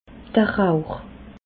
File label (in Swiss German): "rauch", alsace